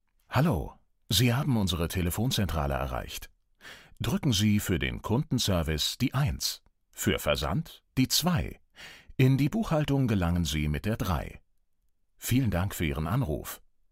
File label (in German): die telefonansage